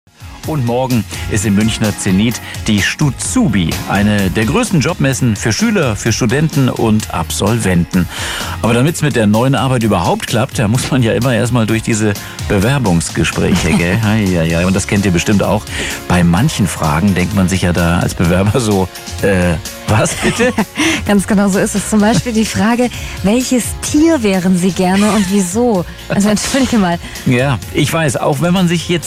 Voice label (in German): Radio Gong